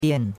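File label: "dian4", Chinese; Cours-de-Chinois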